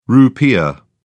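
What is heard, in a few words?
"rupiah", British English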